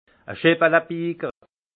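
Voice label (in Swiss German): "scheppelebiger", alsace Haut-Rhin; vin; accumule les chopines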